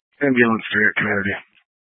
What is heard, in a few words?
Fire Dispatch